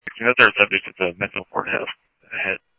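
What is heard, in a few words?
police radio dispatch, ScottsdalePolice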